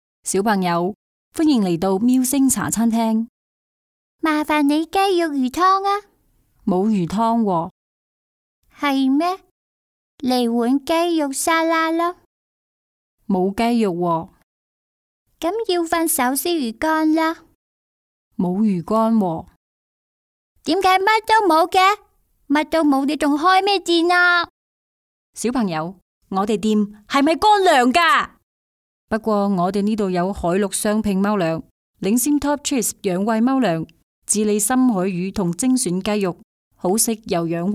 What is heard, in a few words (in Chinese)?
粤语 角色儿童与中年 猫粮广告, 女